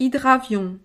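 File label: "hydravion", French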